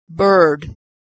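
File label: "bird", American English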